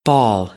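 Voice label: "ball", American English; AmericanBritish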